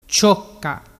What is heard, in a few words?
"ciocca", dialettu